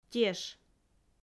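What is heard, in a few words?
"теш", Komi, female; audio dict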